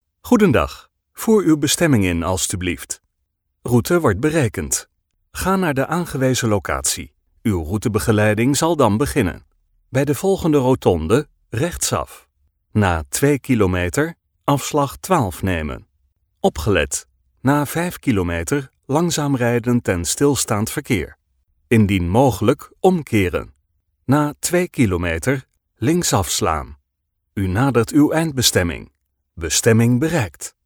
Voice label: vo navigation

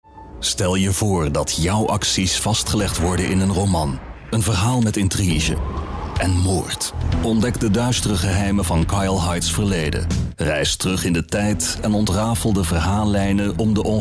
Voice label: vo trailer subdued

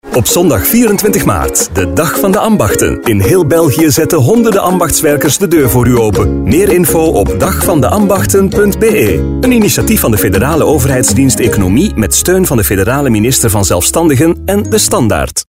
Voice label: Flemish medium sell

